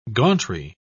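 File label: "gauntry", British English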